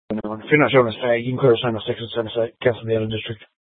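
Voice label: Police Department Dispatch, scanner; TOPAZ Regional Wireless Cooperative (TRWC) - Mesa Simulcast; Mesa PD; 4 Superstition Dispatch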